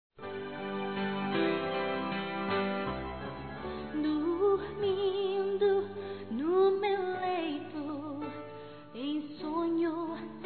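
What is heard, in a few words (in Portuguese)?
ANIVERSARIO ROMANTICO, feminino; Telemensagens; Telemensagens 2017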